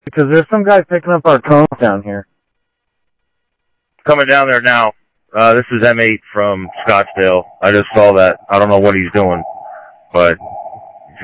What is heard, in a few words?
Mutual Aid, scanner audio; ThompsonPeakHigh; OCEAN 6